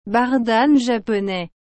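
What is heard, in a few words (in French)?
"bardane japonais", French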